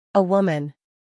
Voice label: "a woman", English